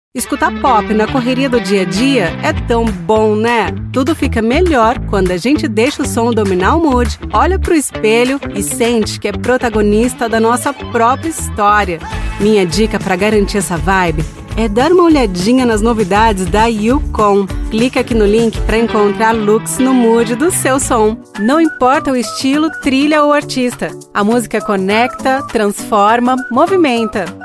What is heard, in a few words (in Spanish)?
comercial